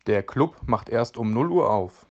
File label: "Club", german